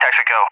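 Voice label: "Texaco", English, USA; CallSign; DCS; Allied Flight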